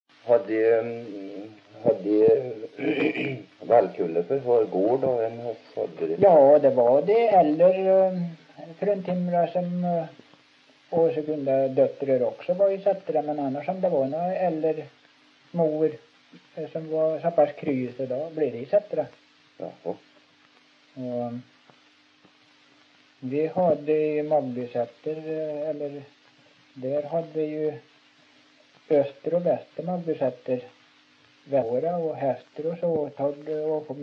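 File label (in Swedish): Sunne Värmland, dialekter; Folkminnen; Svenska sagor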